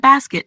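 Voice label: "Basket", English; shopping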